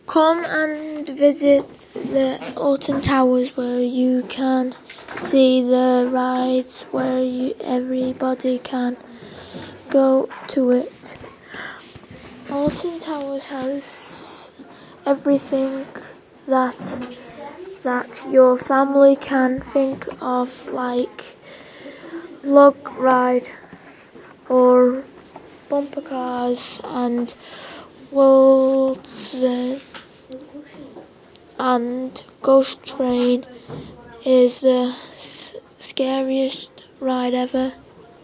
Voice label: radio advert